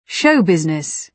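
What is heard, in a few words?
"show business", British English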